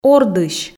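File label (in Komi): "ордысь", Komi, female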